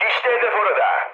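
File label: Turkish near waypoint, mood med, speech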